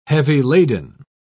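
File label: "heavy-laden", British English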